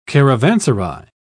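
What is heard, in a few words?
"caravanserai", English, British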